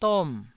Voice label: "dom4", taiwanese syllables